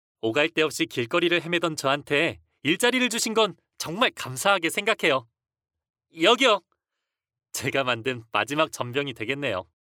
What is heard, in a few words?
male korean voice over character